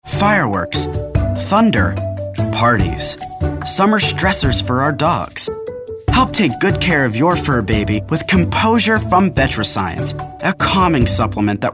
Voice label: guy next door

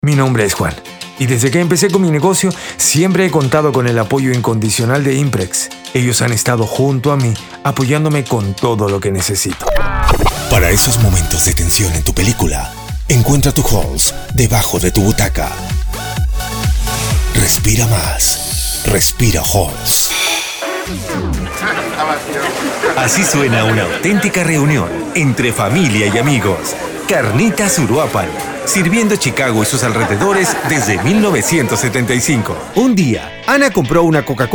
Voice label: Spanish, Upbeat

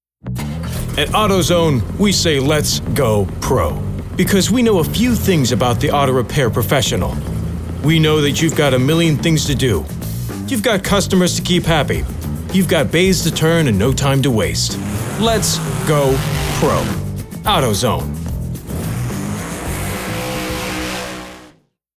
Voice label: effortlessly casual and conversational; AutoZone

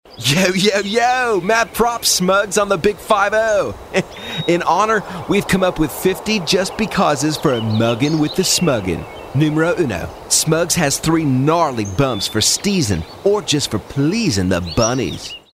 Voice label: surfer dudes

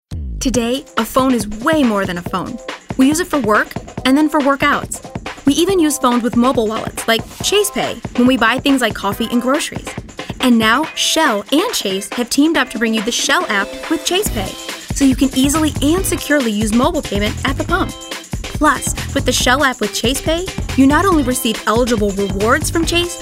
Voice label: upbeat

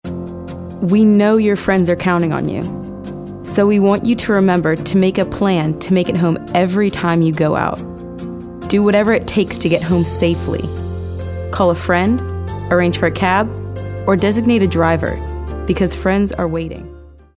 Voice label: sincere

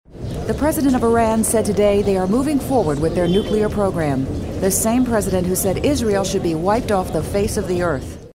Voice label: newscaster

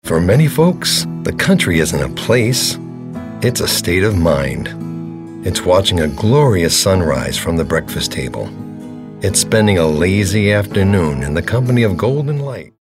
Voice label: folksy

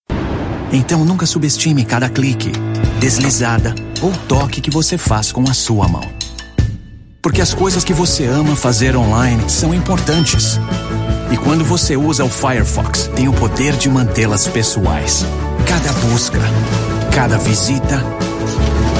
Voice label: PROMO - Portuguese; FIREFOX

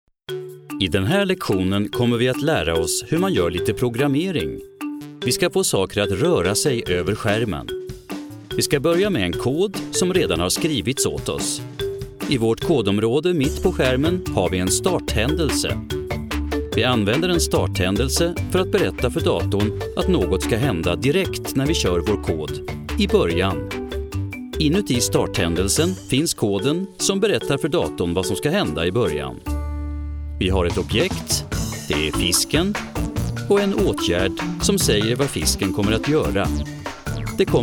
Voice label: eLearning

